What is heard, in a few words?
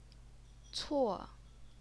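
"cuo", fourth tone, Chinese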